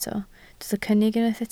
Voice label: "cynnig", Welsh